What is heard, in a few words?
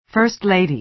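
"first lady", American English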